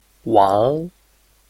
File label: "wang2", Chinese